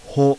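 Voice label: kana "HO", Japanese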